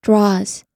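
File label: "draws", American English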